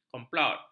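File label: "komplot", Malay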